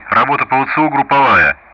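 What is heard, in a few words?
Speech Russian; DCS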